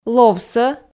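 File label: "ловсӧ", Komi, female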